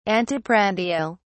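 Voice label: "anteprandial", British English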